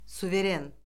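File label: "suveren", Azerbaijani; Orfoqrafiya Lüğəti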